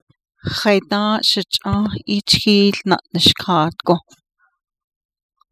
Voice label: navajo, Last winter I became snowbound while herding shee; navajo postposition; Haidą́ą́’ shich’ą́ą́h ’ííchííl na’nishkaadgo.